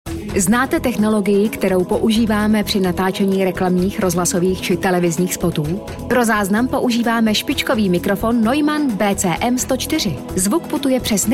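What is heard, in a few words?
Czech, female, commercial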